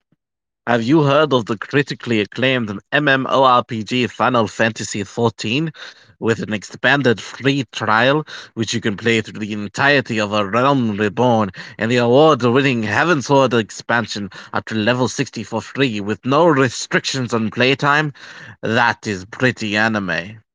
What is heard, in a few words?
voice message